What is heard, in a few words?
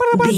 voice fun character cute cartoon